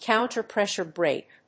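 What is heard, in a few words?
"counter pressure brake", English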